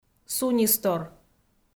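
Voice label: "сунистор", Komi-Zyrian, female; audio dict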